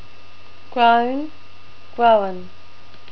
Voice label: "groan", NZ English

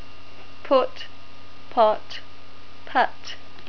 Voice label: "put", NZ English

